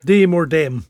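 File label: "dame or dem", Cromarty Fishertoun dialect; [dEYM or dEHm]; girl or woman; Word; fishertoun dictionary; cromartymuseum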